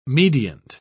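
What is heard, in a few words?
"mediant", English, British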